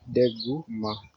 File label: no understand, wolof